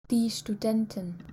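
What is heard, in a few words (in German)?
"studentin", German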